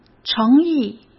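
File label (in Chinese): "诚意", Mandarin Chinese; hsk; chinese-flashcards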